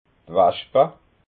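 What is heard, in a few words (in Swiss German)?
"waschpa", Alsatian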